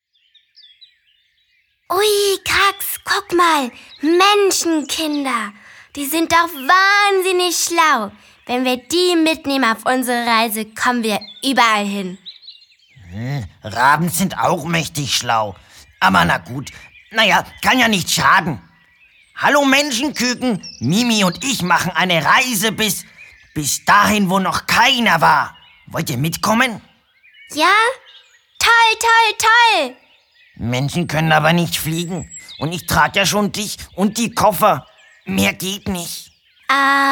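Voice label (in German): Begrüßung Kinder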